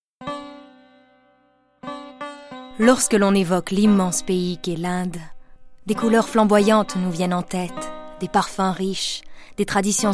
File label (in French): voix narration Femmes indiennes